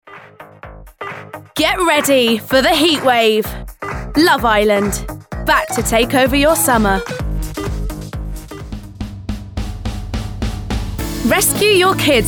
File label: female English commercial compilation